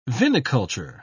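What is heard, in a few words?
"viniculture", English, British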